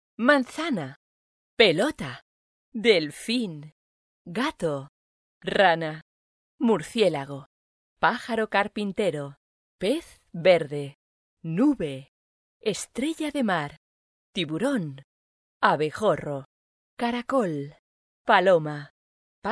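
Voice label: kinderapp, female, Spanish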